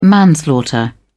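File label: "manslaughter", English, British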